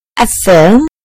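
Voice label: "film", British English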